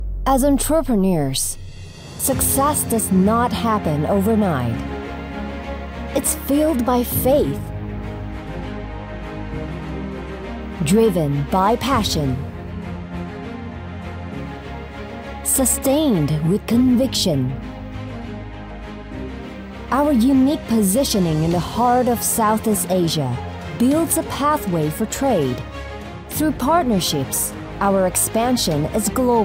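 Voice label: ENGLISH - COMMERCIAL Strong, Passionate, Inspiring, Positive, Motivating; ENGLISH - COMMERCIAL (Tahira Group) Strong, Passionate, Inspiring, Positive, Motivating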